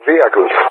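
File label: "vehicles", English speech; Target; DCS; Allied Flight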